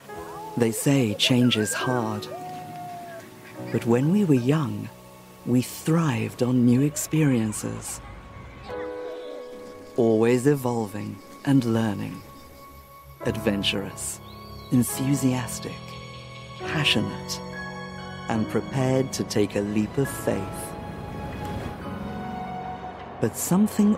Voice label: Corporate